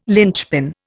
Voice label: "linchpin", Indian

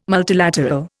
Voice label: "multilateral", Indian

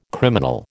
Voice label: "criminal", American

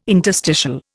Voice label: "interstitial", Indian

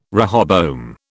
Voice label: "rehoboam", British

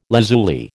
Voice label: "lazuli", American

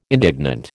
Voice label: "indignant", American

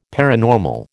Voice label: "paranormal", American

